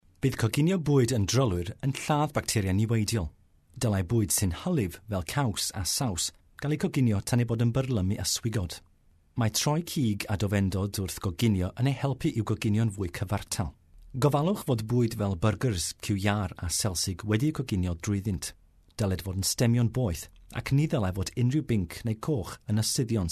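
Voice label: Welsh, male, narration; voice-over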